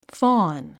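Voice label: "fawn", English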